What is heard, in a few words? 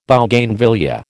"Bougainvillaea", American